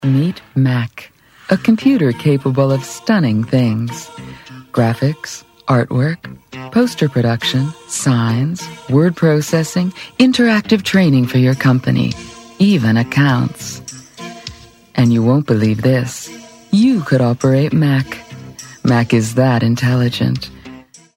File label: Commercial; Mac